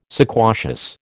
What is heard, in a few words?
"sequacious", American